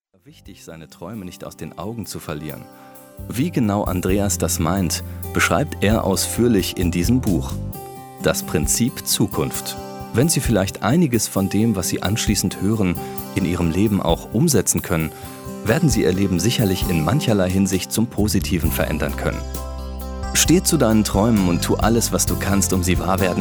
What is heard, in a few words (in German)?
Erzaehler